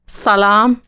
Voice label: dari hello